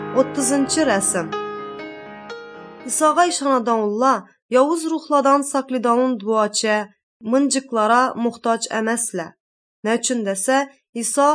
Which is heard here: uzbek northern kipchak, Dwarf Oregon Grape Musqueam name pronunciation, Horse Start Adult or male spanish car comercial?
uzbek northern kipchak